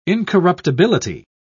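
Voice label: "incorruptibility", English, British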